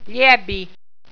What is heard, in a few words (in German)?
"liabi", walser